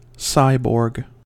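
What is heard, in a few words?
"cyborg", US English; pronunciations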